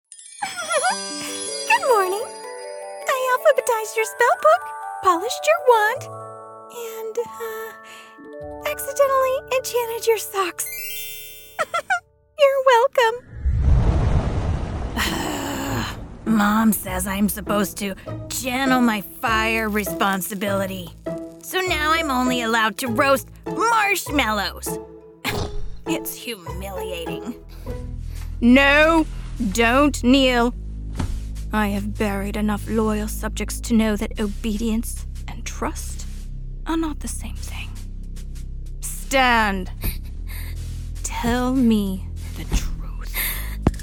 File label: Animation Characters